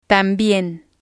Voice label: "tambien", spanish